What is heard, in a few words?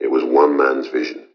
LoFi Phrases, LoFi Vocals; Vengeance Samples